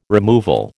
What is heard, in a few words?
"removal", American